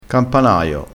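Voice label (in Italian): "campanaio", dialetto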